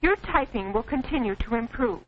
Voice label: typing improves, Quirky Female Typing Instructor; VOCALS and SPOKEN WORD

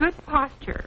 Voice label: good posture, Quirky Female Typing Instructor; VOCALS and SPOKEN WORD

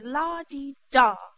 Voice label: la-dee-dah, female; MISC and SPOKEN WORD

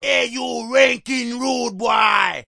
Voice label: unintelligible rasta, Rastafarian; VOCALS and SPOKEN WORD

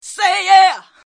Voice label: diva say yeah; Cheesy Divas; VOCALS and SPOKEN WORD

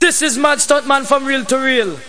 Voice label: reel2reel rasta; Rastafarian; VOCALS and SPOKEN WORD

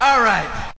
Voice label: "a right", male; VOCALS and SPOKEN WORD

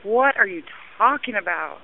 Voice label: what you talkin bout, female spoken word; MISC and SPOKEN WORD

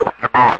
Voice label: radio voice, Distorted Radio Voice; VOCAL

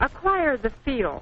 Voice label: acquire the feel, Quirky Female Typing Instructor; VOCALS and SPOKEN WORD

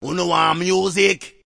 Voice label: musik, Rastafarian; VOCALS and SPOKEN WORD

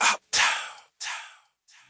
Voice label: breathy uptown, male; VOCALS and SPOKEN WORD

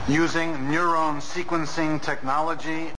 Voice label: using neurone sequencing tech, male spoken word; VOCALS and SPOKEN WORD

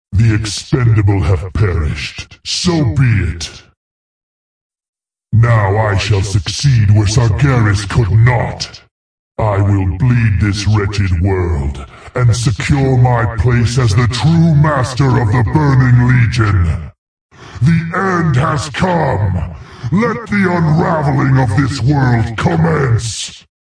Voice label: silly speech, male; world of warcraft; VOCALS and SPOKEN WORD

